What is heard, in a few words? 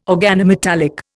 "organometallic", Indian